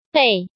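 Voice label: "Bèi", Chinese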